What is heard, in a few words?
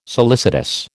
"solicitous", American